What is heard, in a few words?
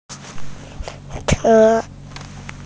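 "che1", Chinese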